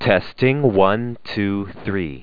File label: modulator decimate, Speech Processing; Lab 2 Speech Processing